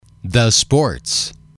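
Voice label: "the sports", English, male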